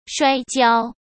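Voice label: "摔跤", Mandarin Chinese; hsk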